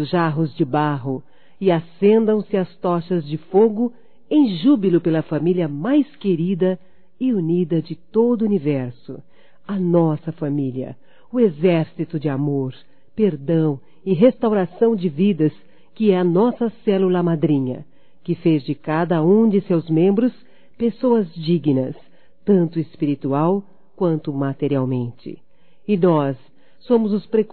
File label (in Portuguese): Bodas de Prata Feminino; Bodas de casamento; Telemensagens 2017